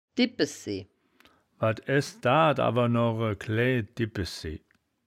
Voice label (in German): "dibpesjen", wittlicher